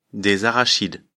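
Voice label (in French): "arachides", French; afrikalan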